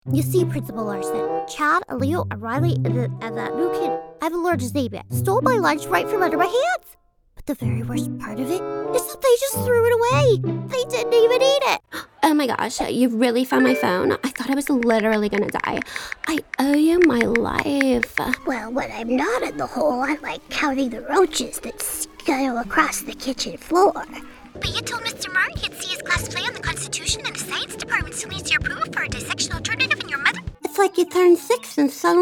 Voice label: Animation